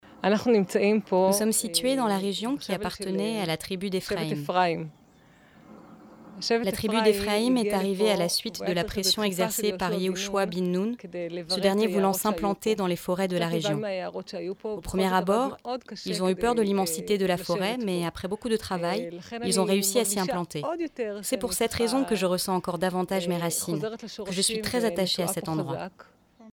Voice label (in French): Voiceover Série Documentaire; Sur la Routes Oliviers; Arte France